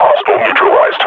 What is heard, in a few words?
announce kill, combine grunt; Half Life Model Pack